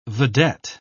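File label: "vedette", British English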